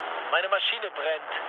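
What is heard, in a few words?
German on fire mood low, speech; War Thunder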